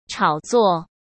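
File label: "炒作", Mandarin Chinese; chinese flashcards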